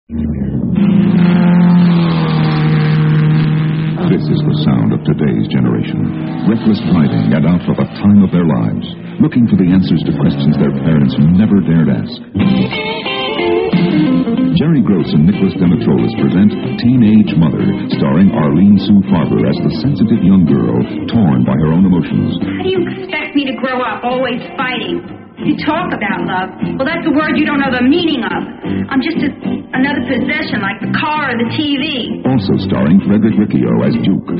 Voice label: movie ad, radio spots; teenage mother; psychedelic promos and radio spots